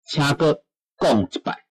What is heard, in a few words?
say again, taiwanese